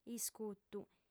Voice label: "iscutu", audiofem